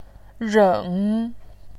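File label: "reng", Mandarin Chinese, third tone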